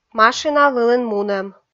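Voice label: "машина вылын мунӧм", Komi, female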